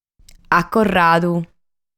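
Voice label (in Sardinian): "Acorradu", Sardinian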